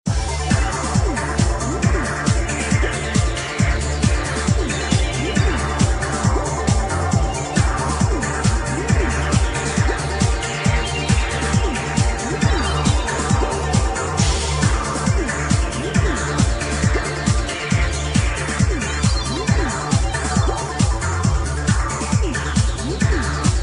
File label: Trailer